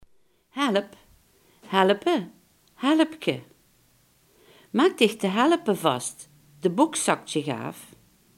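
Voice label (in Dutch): "bretel" Limburgish dialect word; bretel, help (helpe, helpke)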